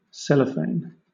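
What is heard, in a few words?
"cellophane", British English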